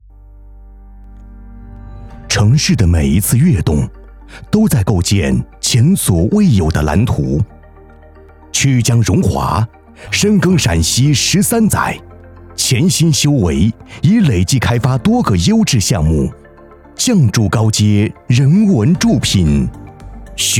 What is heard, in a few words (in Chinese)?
房产广告